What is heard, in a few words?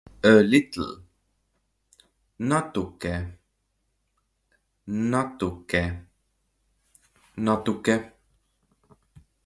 A little, Estonian; the talking estonian phrasebook